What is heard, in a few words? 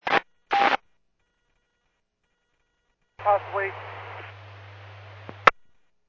Medevac, scanner; PHIAIREVACHelRad